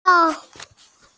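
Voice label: "xue2xiao4" Mandarin Chinese, female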